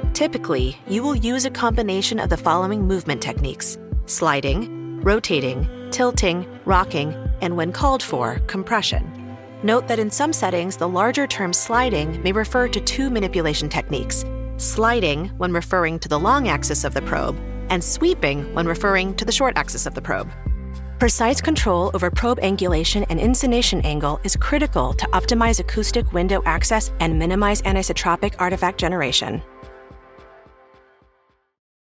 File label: eLearning ultrasound